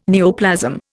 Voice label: "neoplasm", Indian